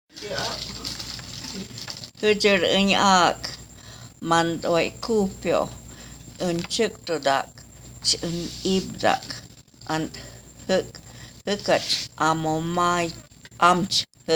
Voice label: Hejel En Ag (Female Voice)